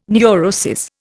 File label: "neurosis", Indian